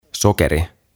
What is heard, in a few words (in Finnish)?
"Sokeri", "Sugar"; OTAVA KONSERNI